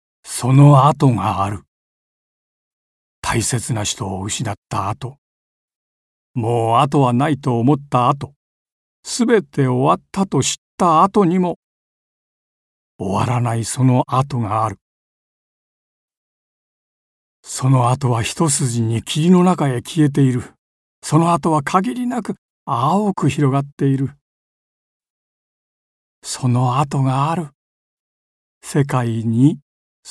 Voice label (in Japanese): ポエム